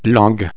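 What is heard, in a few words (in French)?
"langue", French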